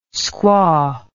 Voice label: "squaw", English

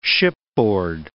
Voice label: "shipboard", English

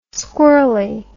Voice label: "squirly", english

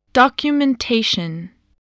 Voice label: "documentation", spelling

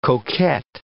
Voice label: "coquet", English

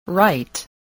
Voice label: "write", voice, english

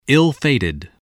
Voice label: "ill-fated", voice spelling

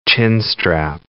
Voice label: "chinstrap penguin", English

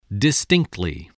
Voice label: "distinctly", spelling

